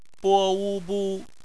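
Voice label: "bu", bopomofo, chinese; BPMF spelling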